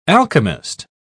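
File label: "alchemist", British English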